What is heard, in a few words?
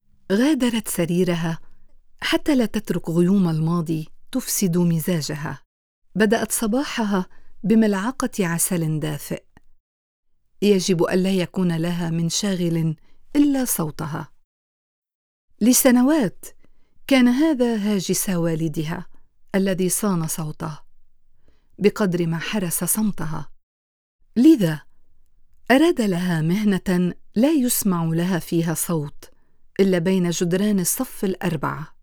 Documentary